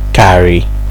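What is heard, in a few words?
"carry", Nigerian Pidgin, male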